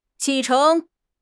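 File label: "启程", Mandarin Chinese; HSK6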